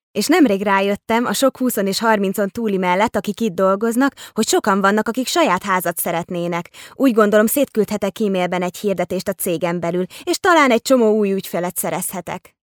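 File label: female Hungarian IVR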